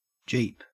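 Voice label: "jeep", Australian English; pronunciations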